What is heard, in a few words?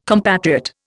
"compatriot", Indian